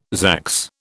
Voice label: "zax", British